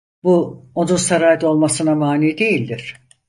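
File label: "mani", Turkish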